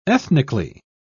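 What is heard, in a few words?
"ethnically", British English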